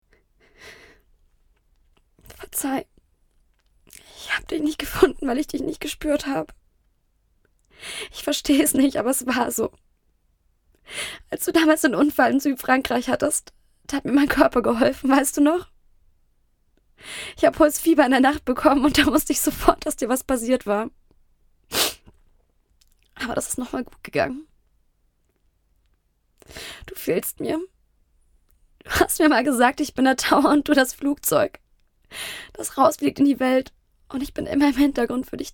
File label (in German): Emotional Trauer